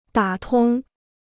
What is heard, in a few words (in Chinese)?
"打通", Chinese